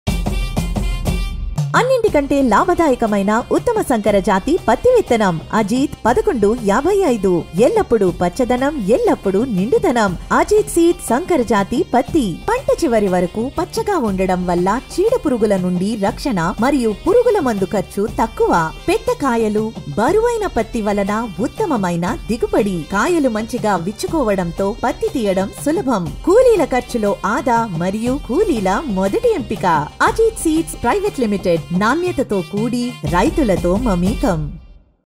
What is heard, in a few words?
advertisement Telugu